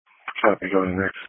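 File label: Police Patrol (Dispatch), Audio Call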